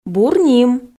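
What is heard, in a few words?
"бур ним", Komi, female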